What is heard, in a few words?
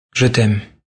French I Love You, Male; Talking Sound Effects